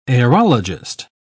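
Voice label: "aerologist", English, uk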